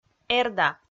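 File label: "эрда", Komi, female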